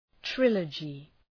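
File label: "trilogy", English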